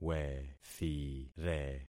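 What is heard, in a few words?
"wethire", concatenated syllables; Affixing experiment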